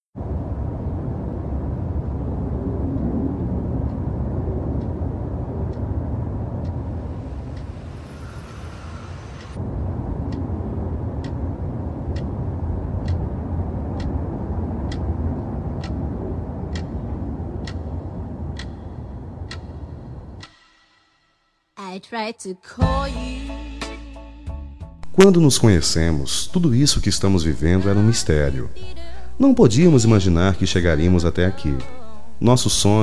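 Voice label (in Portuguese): ROMANTICA P CASAIS male, telemensagem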